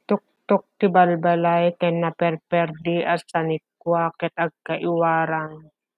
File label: Ilocano; roofs and debris scattered - tuktok ti balbalay ken naperperdi a sanikua ket agkaiwarang